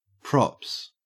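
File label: "props", Australian English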